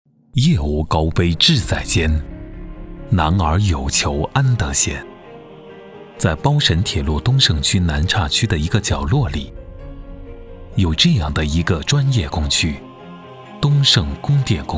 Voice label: 男, 专题, 温暖大气风格, 扶贫

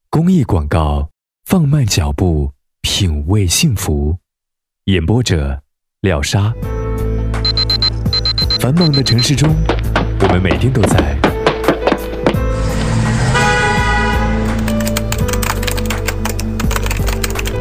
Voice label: 广告 洋气 时尚 公益广告 放慢脚步, 国语男声配音

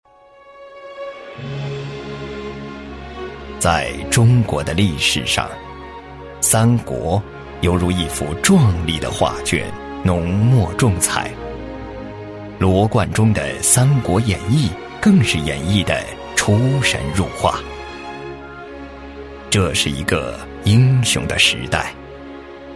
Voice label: 纪录片, 国语男声配音, 磁性; 蜀汉史; 磁性专题; 男98磁性专题

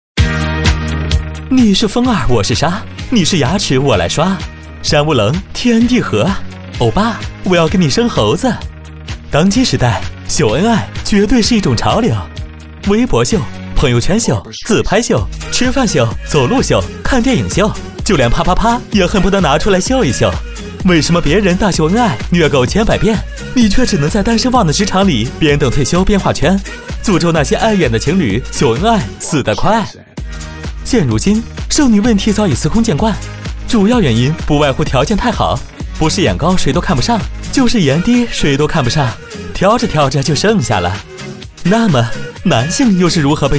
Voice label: 男 风趣幽默; 飞碟说; 秀恩爱

